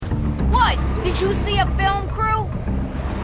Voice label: female marine